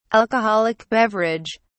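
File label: "alcoholic beverage", British English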